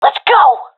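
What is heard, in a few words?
request move, professional female; Counter Strike Model Pack; CS:GO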